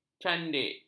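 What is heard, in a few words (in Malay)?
"candik", Malay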